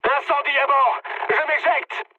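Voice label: on fire, mood medium, French; War Thunder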